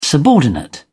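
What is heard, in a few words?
"subordinate", British English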